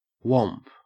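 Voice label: "whomp", Australian English; pronunciations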